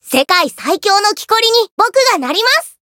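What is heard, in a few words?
chant, Japanese; phiro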